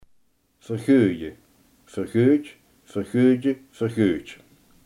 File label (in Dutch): "vergoeden", limburgse